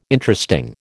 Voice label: "Interesting", American

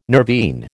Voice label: "nervine", American

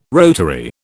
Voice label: "rotary", British

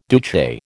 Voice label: "Duce", American

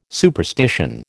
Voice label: "superstition", American

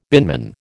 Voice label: "binman", American

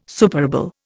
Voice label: "superable", Indian

